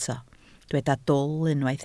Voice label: "dwl", Welsh